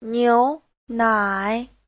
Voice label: "niu2nai3", chinese; Giáo trình Hán ngữ

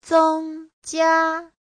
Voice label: "zeng1jia1", Chinese

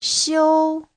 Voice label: "xiu1", Chinese

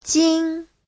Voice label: "jin1", chinese

